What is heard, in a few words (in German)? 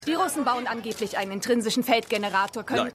Reporterin; Watchmen